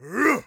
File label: attack, orc voice; Orc Voice Pack